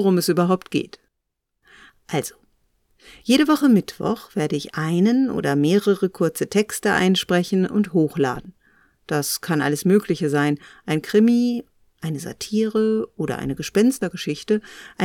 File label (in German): Trailer, Wortkeks - die kleine Lesung; Wortkeks - Trailer bzw. VorWortkeks; Wortkeks - die kleine Lesung Podcast